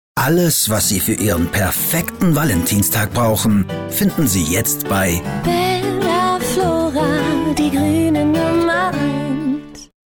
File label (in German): Mann OFF